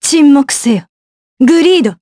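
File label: Vox Skill, voices, Japanese; Isolet; kingsraid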